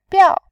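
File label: "biao", Mandarin Chinese, tone 4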